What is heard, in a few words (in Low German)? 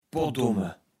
"pottdome", kaevels platt